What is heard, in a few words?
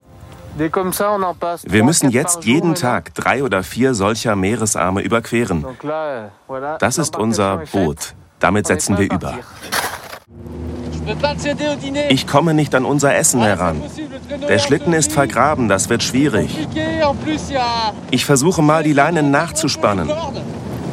Voice Over Doku; Arte